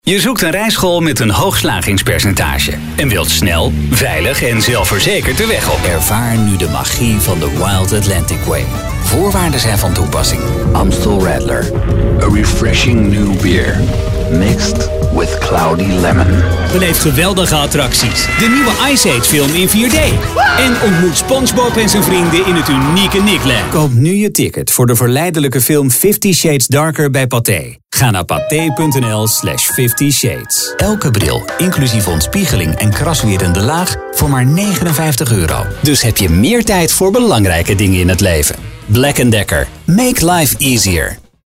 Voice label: commercial, voice-over; demo voorjaar